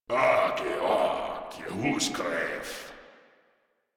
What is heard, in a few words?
Patrol, Grineer Male; Warframe